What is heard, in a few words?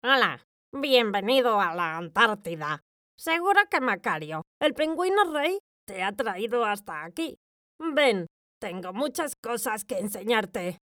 character cartoon pinguin